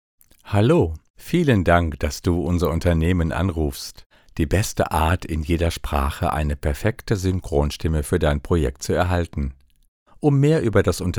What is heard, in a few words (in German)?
Anrufbeantworter